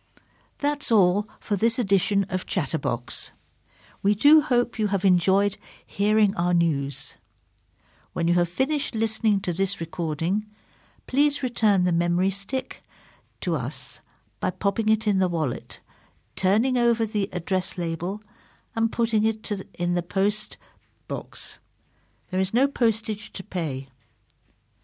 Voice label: Closing Announcement; Chatterbox; Norwich Evening News